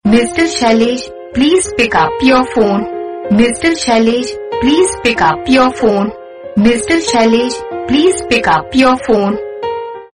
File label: pick up the phone saying ringtone